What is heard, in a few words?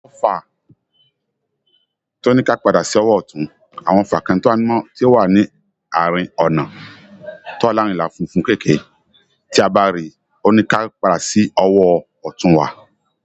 YORUBA